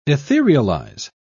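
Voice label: "etherealize", British English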